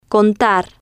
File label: "contar", Spanish